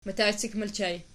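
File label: "matacik" ergative plural, Archi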